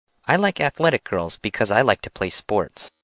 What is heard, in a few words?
Talking Girls